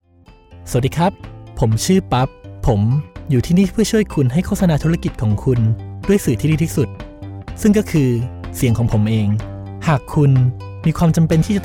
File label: Thai